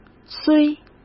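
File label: "虽", Mandarin Chinese; chinese-flashcards; hsk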